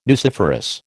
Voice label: "nuciferous", American